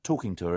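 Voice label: "talking to her", Standard Southern British English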